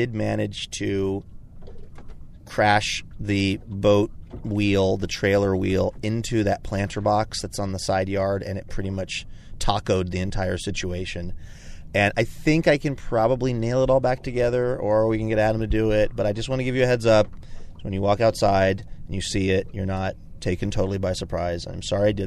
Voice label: leaving voicemail message